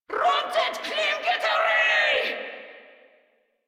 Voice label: Attack Grineer Female; Warframe